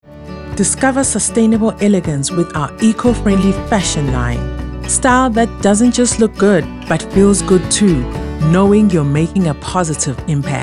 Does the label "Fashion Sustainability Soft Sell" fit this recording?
Yes